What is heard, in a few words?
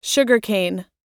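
"toddler", us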